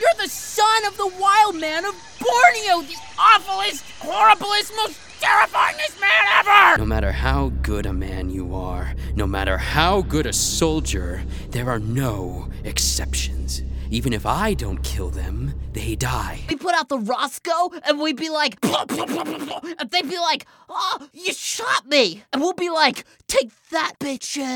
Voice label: Animation

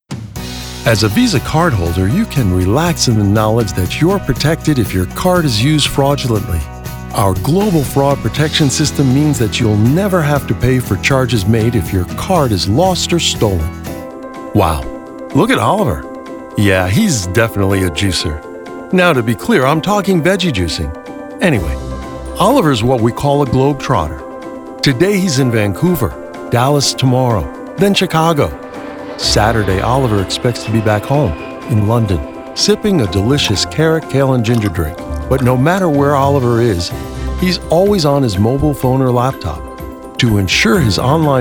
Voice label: EXPLAINER

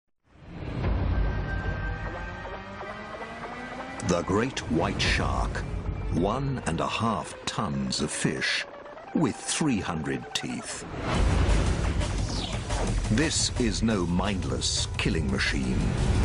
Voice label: NARRATION; NATGEO

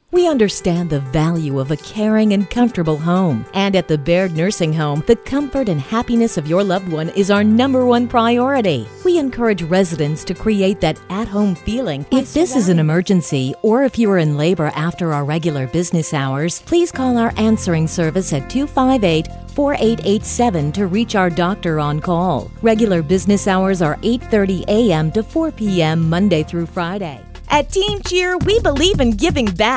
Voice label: on hold

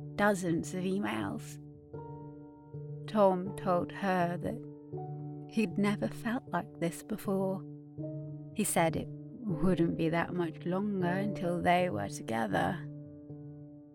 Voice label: Dramatic Voicereel

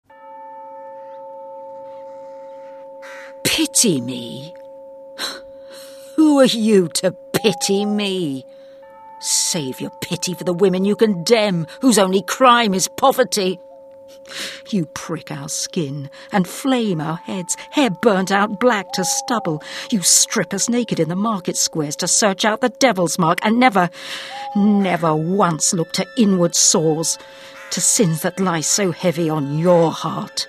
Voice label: Dramatic Reel

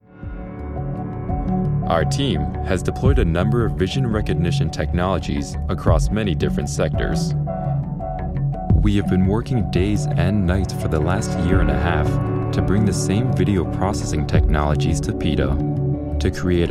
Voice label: drone voiceover

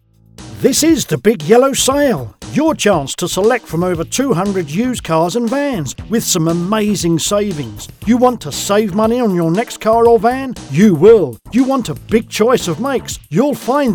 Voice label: Local Radio Commercials

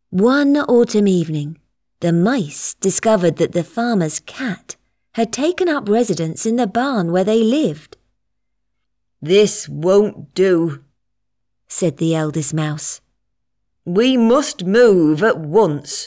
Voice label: Childrens Audiobook

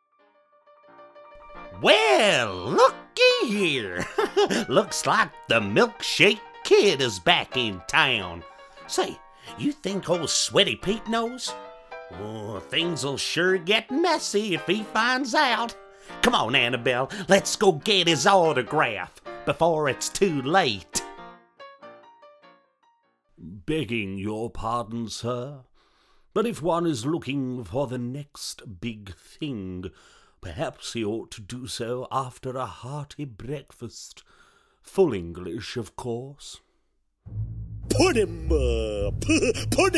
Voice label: Character voice reel

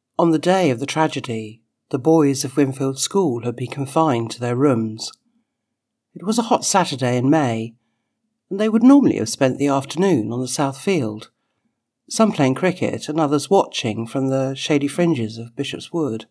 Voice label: Audiobook

